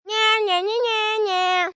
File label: Nya Nya Mock, child, mocking; Kids; Talking